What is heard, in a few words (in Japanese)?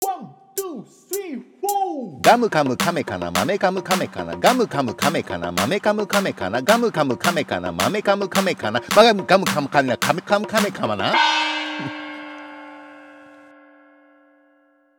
早口言葉リズム（中級編）